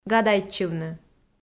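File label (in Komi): "гадайтчывны", Komi-Zyrian, female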